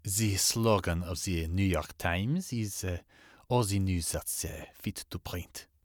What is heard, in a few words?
French